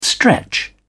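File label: "stretch", English, British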